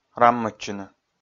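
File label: "раммӧдчыны", Komi, male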